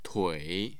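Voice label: "tui3", Mandarin Chinese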